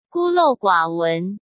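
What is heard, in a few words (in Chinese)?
"孤陋寡闻", Mandarin Chinese; chinese flashcards; hsk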